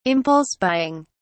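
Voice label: "impulse buying", British English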